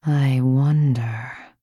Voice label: Thinking